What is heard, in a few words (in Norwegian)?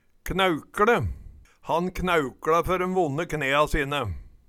knaeukLe, Numedalsmål